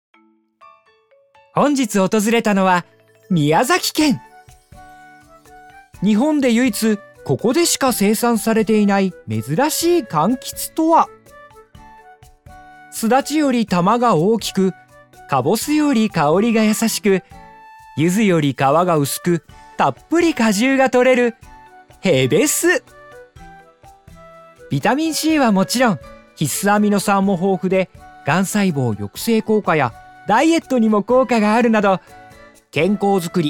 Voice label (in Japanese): 番組ナレーション